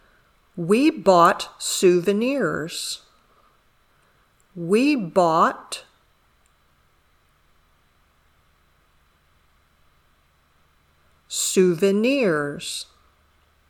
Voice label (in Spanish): Dictado